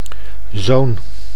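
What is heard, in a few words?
"zoon", Dutch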